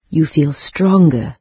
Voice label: stronger, en-UK, NARRATOR; Fate